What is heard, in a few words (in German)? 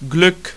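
"glueck" German; ipa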